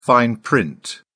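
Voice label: "fine print", British English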